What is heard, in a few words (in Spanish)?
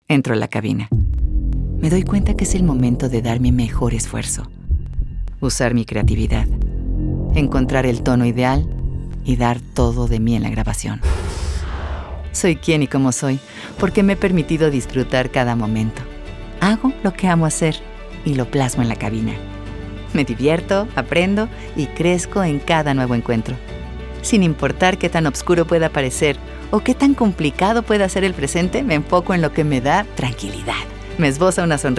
locutora español inglés